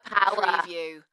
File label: UK female "Power" Dalekness; beanstalk audio